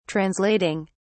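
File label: "translating", British English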